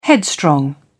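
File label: "headstrong", British English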